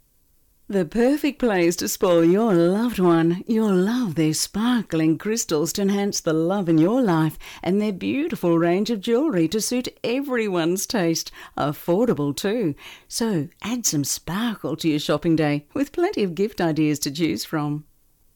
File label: Female voice artist, on hold messages